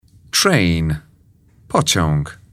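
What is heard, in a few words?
"train", English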